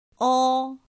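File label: "ō", Chinese; pinyin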